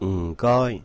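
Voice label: "唔該", Cantonese; Thanks for someone’s help.; m4-gōi; m4 goi1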